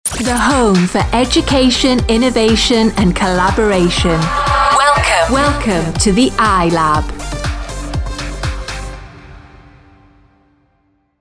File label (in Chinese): 外籍英女 教育类产品广告